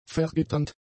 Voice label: "vergitternd", German; adjectives

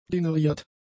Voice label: "generiert", German; adjectives

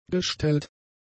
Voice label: "gestellt", German; adjectives

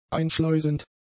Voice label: "einschleusend", German; adjectives

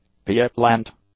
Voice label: "pieplant", English; nouns

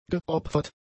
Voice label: "geopfert", German; adjectives

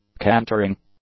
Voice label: "cantering", English; adjectives

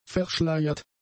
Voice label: "verschleiert", German; adjectives

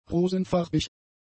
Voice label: "rosenfarbig", German; adjectives

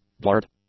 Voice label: "blurred", English; adjectives

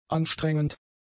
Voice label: "anstrengend", German; adjectives

